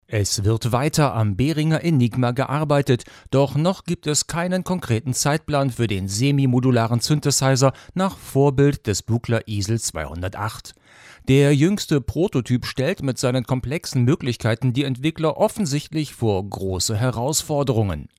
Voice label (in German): XLR Nahdistanz